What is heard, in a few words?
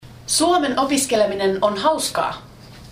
finnish is fun, finnish